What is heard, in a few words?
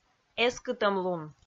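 "эскытӧмлун", Komi, female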